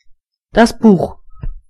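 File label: book, German voices words; gcompris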